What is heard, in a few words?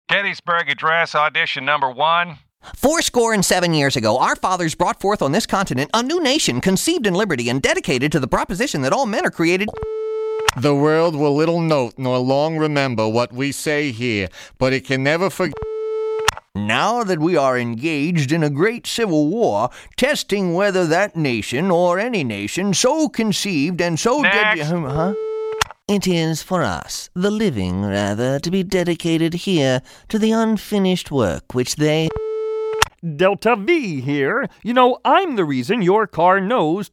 character